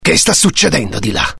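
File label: curious, Italian; mohaa; dialogue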